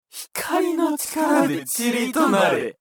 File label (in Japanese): 化物人ボイス, 光の魔法1; 戦闘ボイス